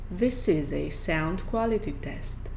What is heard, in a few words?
voice 50cm front